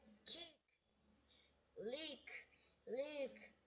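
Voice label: "lick", spoken word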